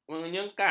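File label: "menyengkang", Malay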